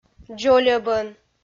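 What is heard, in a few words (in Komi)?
"дзольӧбӧн", Komi, female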